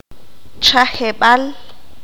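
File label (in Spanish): "Bendición", qanjobal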